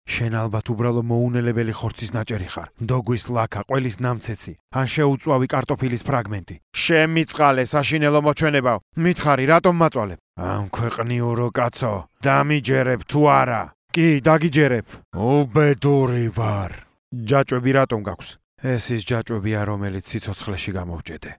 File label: Georgian male